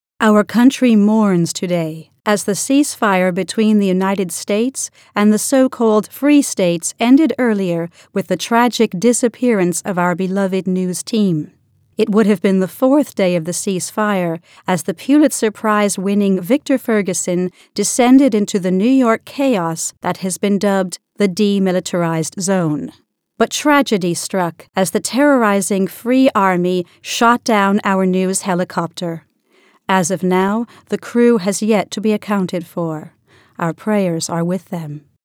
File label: Newscaster